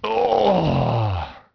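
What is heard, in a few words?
male wow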